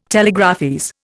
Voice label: "telegraphese", Indian